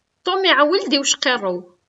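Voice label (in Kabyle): Tom iɛewwel-d i ucqiṛṛew., Kabyle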